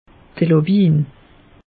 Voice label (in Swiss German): "lawiin", alsace; meteo